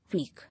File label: "Week", English